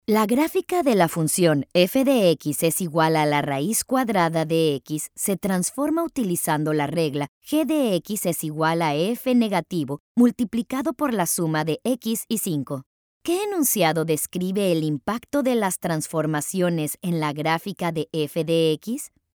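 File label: Spanish Voice Over, Grade 8-12 Math Educational; eLearning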